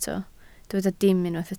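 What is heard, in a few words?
"dim" Welsh, spoken word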